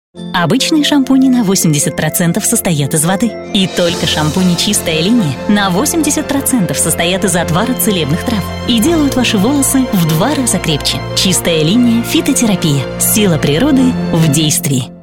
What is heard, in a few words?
russian voice over female commercial